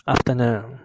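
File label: "afternoon", Japanese, male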